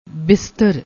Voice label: "bistar", Punjabi